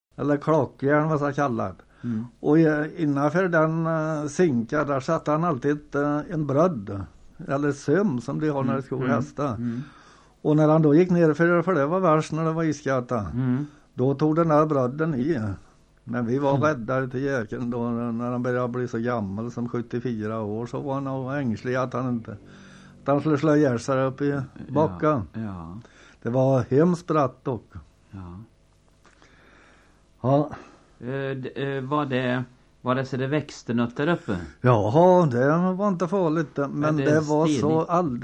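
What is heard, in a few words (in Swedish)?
Mularp Västergötland, dialekter; Svenska sagor; Folkminnen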